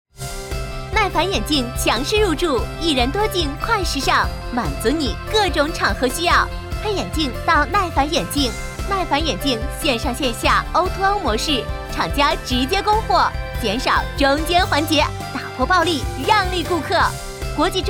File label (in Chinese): 女 欢快; 眼镜